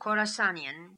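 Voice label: "Khorassanian", pronunciations; Fourth Way; Beelzebub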